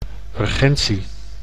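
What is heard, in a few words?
"urgentie", Dutch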